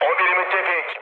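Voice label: Turkish player friendly fire, mood low, speech; War Thunder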